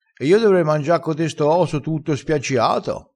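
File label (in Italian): "spiaccia", dialetto crespinese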